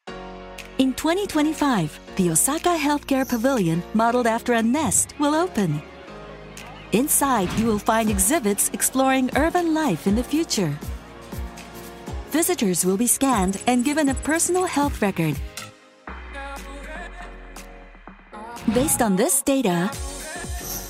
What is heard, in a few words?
bilingual; EXPO 2025 Osaka